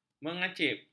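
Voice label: "mengacip", Malay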